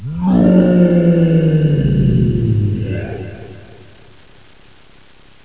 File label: Wrong answer, w echo; Alert Beeps; NOOO!!